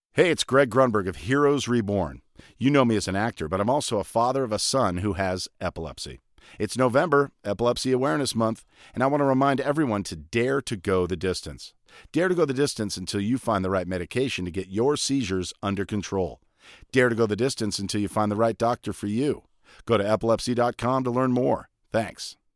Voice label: spoken word; lewitt; lct940 fet